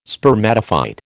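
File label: "spermatophyte", American English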